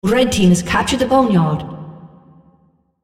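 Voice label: Boneyard red Captured female; Odin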